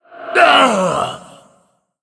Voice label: Ethereal Emote Death